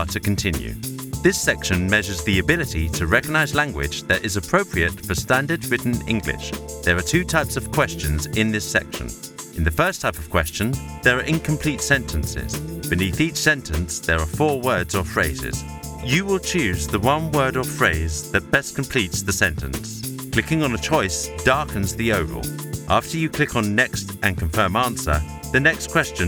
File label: e Learning